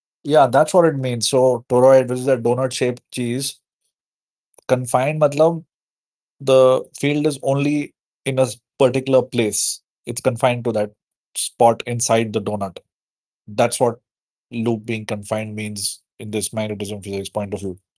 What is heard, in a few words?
voice message; magnetism and matter